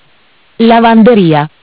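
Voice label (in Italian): "Lavanderia", Italian; Bentel; BLE320; MESSAGGI VOCALI; Audio BGS220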